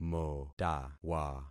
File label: "motawa", concat syllables; Affixing experiment